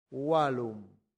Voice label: "vallum", latin; santillana

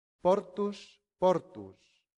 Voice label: portusus, latin; santillana

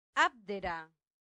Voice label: "abdera", latin; santillana

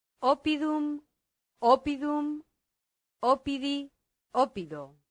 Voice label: "oppidum", latin; santillana

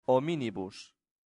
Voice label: "hominibus", latin; santillana

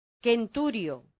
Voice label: "centurio", latin; santillana

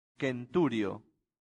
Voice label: "centurio", latin; santillana

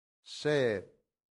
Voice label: "sed", Latin; santillana

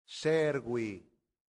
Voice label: "servi", Latin; santillana

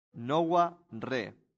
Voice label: "nova re", latin; santillana

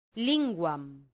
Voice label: "linguam", Latin; santillana

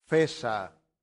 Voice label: "fessa", latin; santillana

